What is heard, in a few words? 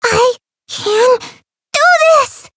Voice variable chant; white nopou